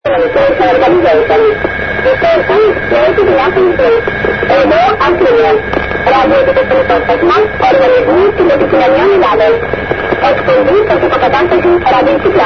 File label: usb